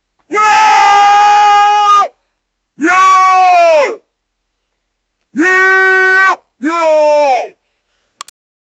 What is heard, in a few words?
pirate yelling